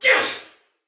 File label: Attack Normal, Female, Soldier; Dialog; Barks; Battle; Sinecure